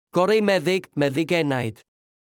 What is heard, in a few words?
Welsh proverb